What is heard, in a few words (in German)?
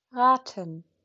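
"raten", German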